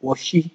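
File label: "washy", Nigerian Pidgin, male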